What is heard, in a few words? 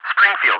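"Springfield", English, ATC callsign; DCS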